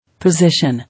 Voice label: "Position", English